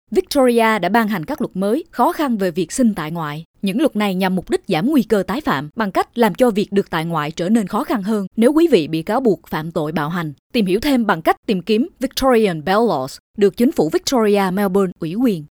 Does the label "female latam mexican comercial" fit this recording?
No